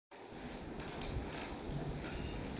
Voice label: voice message